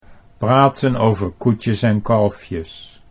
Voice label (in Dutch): "praten over koetjes en kalfjes", Dutch